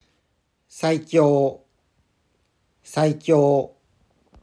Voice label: Saikyou or Saikyo Japanese Pronunciation